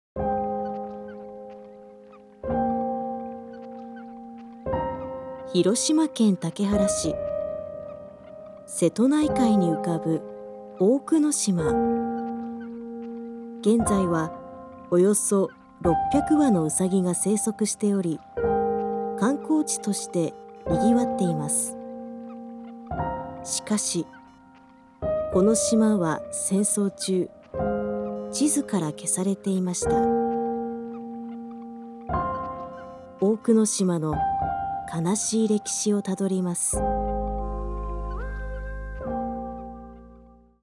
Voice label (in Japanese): しっとり、落ち着き, 女性ナレーター